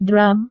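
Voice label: "drum", Indonesian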